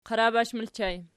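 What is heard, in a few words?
"qarabash" ergative plural, Archi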